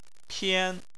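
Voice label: "pian" first tone, Chinese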